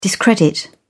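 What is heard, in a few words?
"discredit", British English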